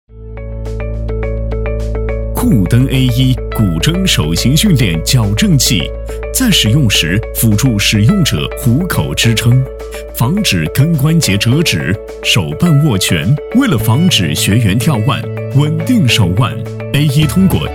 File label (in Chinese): 男, 产品宣传片, 科技感; 男A1-成熟浑厚 大气浑厚; 酷登乐器